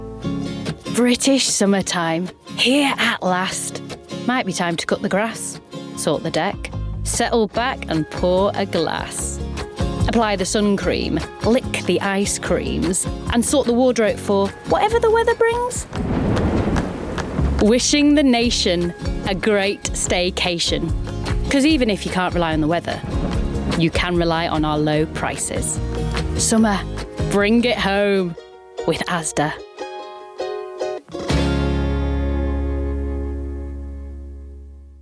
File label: commercial